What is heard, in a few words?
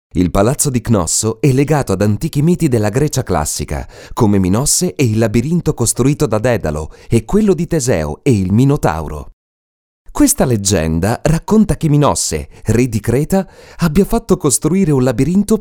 italian documentaries